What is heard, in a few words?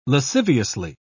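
"lasciviously", British English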